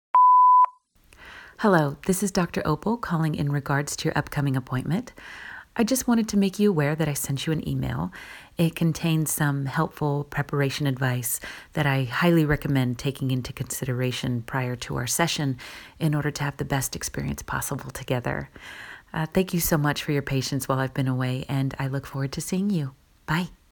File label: Voicemail